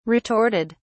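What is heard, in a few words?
"retorted", British English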